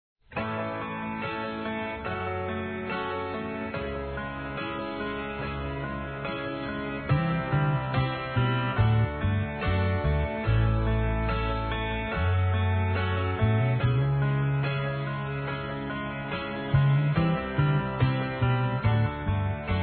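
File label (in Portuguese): AMOR SELVAGEM, Feminino; Telemensagens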